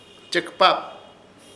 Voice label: "Chepɨkaap", Ishir Chamacoco; poco